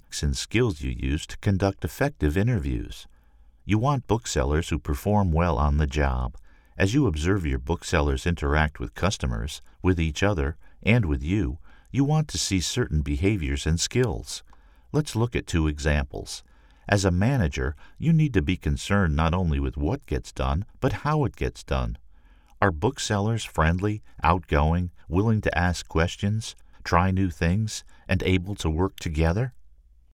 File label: E-Learning Essentials of Interviewing